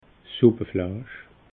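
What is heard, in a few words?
"suppe fleisch", Alsatian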